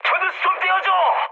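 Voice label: Korean aircraft damaged mood high; War Thunder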